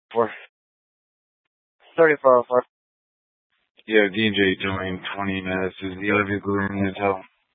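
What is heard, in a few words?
Incident Tactical 3, Scanner audio recording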